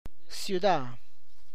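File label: tlacochahuaya, Zapotec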